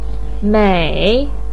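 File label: "mei", Mandarin pinyin, third tone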